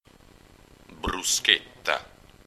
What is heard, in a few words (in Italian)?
"Bruschetta", It